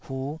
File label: "huh5", taiwanese syllable